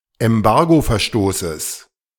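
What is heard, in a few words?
"Embargoverstoßes", German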